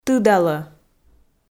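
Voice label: "тыдалӧ", Komi, female; audio dict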